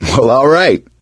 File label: nice job, gambler, survivor voice